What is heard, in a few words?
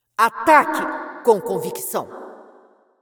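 Brazilian Portuguese, assist; Karma; bloom minigame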